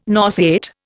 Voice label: "nauseate", Indian